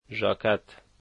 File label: "jacket", Dari